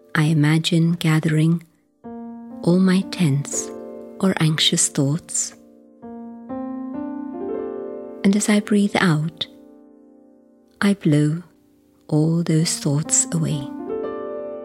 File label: Breathing Calm, meditations; brahmakumaris